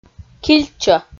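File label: "кильчӧ", Komi, female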